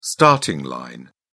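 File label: "starting line", British English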